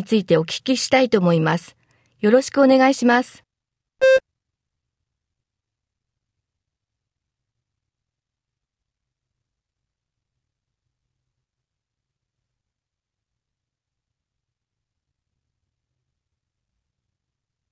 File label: japanese conversation